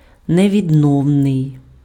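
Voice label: "невідновний", Ukrainian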